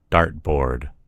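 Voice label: "dart board", American English; gcompris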